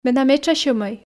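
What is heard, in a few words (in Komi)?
менам этша сьӧмӧй, Komi, female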